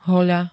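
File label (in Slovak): "hoľa", slovenské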